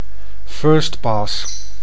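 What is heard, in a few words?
"first-pass", Dutch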